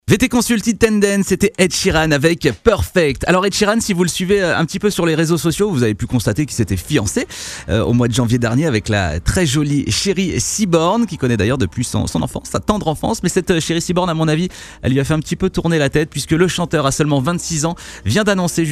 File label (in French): jeune adulte; VT CONSULT